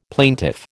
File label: "plaintiff", American